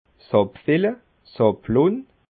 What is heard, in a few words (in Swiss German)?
"abfille", alsace